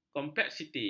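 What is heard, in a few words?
"kompleksiti", Malay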